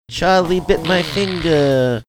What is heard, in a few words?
saying charlie bit my finger funny